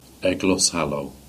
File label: Cornish; Illogan